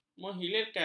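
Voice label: "menghilirkan", Malay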